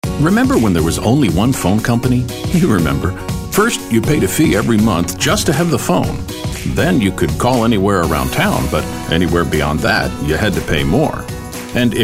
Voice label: Friendly